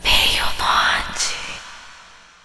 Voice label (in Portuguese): SUSURRO; SEGREDOS DE AMOR; VINHETAS PROGRAMAS MN FM